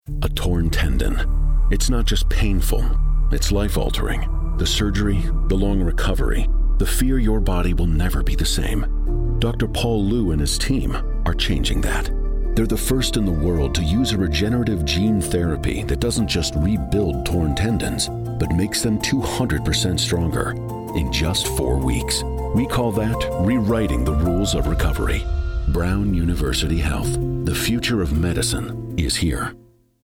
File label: English Radio; Plastic Surgery; Illumination Campaign